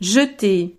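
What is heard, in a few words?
"jeter", French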